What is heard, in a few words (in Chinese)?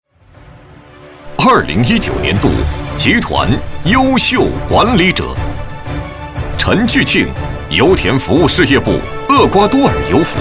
男 年底颁奖（恢宏大气类）